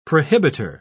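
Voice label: "prohibiter", British English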